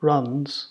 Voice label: "runs", ulster; jetpackLearningPlatform; story-arranger

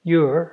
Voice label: "your", ulster; jetpackLearningPlatform; story-arranger

